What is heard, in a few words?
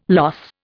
"loss", Indian